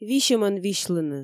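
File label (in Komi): висьӧмӧн висьлыны, Komi, female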